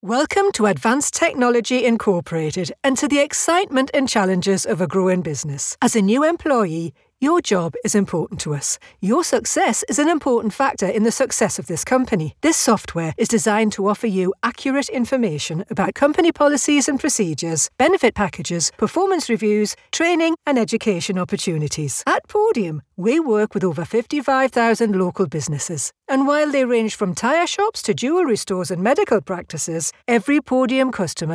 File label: Geordie corporate